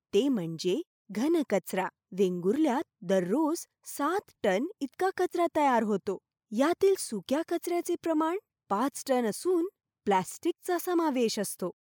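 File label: Marathi India, female, voice over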